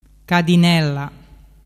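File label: "cadinella", Vernazzese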